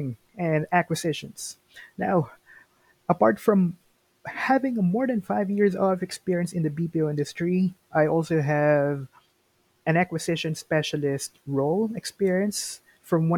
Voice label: work exp intro, Self Introduction; Real Estate Inside Sales Associate